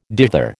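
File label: "dither", American English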